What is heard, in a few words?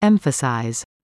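"emphasise", English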